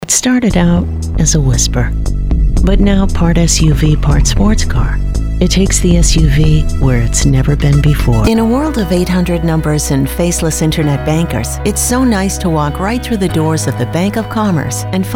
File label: Female